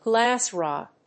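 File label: "glass rod", English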